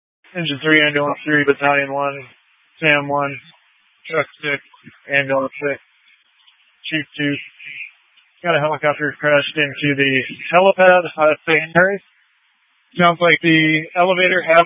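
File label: Incident Tac 3, Scanner audio recording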